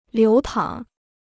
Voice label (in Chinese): "流淌", Chinese